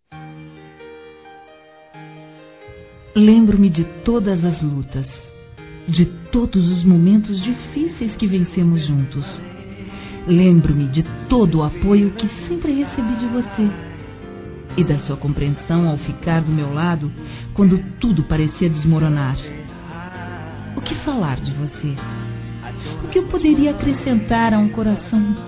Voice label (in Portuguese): Desculpa Esposo Feminino; Telemensagens; Desculpas; Telemensagens 2017